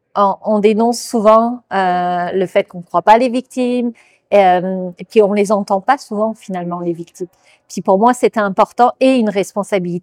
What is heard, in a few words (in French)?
documentaire; abus sexuel; briser les murs